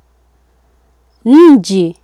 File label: "nchi", Swahili